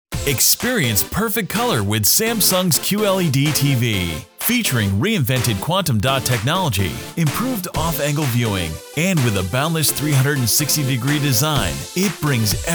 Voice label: Locutor americano Commercial; eeuu